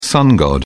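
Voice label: "sun god", British English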